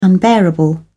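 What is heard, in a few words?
"unbearable", British English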